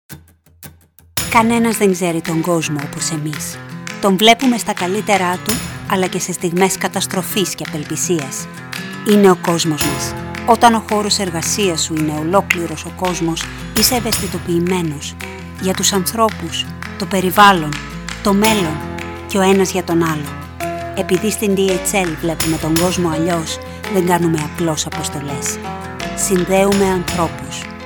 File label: Greek F Corporate; voice over